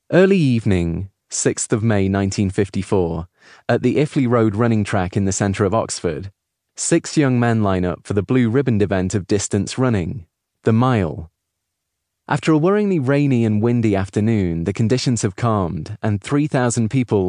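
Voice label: Audiobook Narrator, Male; Start at the End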